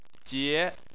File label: "jie2", Chinese